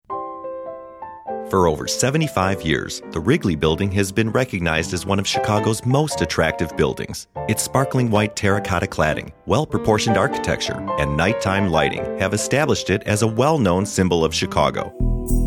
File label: narrative